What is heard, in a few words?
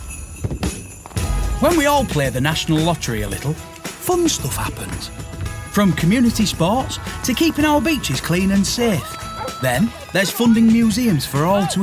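Yorkshire Commercial; 20s-30s. Male. Studio.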